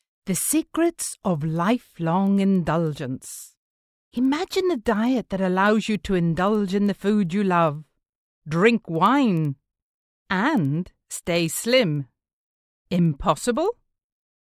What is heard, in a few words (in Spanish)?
locutora inglesa; Speaker Inglese